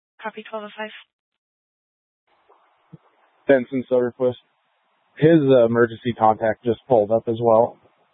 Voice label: Police Department Dispatch, scanner